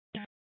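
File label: Police Department Dispatch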